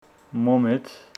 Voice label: Homshetsi your grandmother